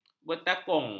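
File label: "bertakung", Malay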